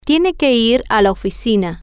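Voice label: you can study the homework at home, Spanish, female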